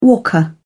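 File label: "walker", British English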